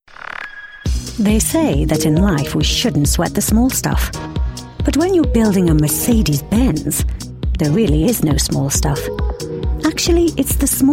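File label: female UK commercial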